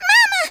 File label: runaway, Southern Belle; speech